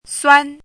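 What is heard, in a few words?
"suan1" Mandarin Chinese, high level tone; chinese-voice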